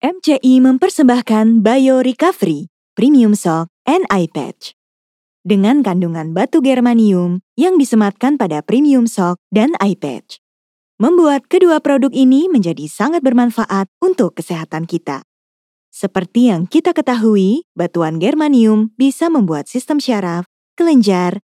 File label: FORMAL